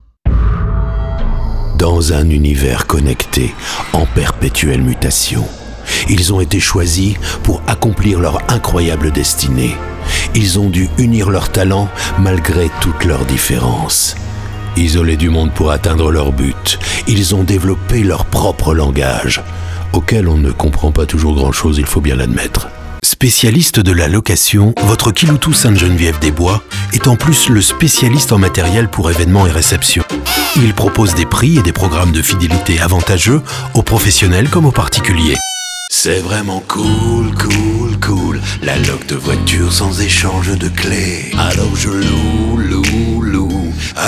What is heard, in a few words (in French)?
Demo Voix